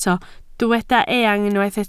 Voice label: "eang", Welsh

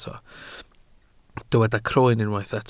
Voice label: "croen", welsh

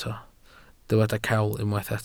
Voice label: "cawl", Welsh

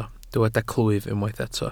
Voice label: "clwyf", Welsh

